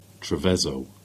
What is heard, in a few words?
"Trevigowe" Cornish